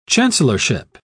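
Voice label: "chancellorship", British English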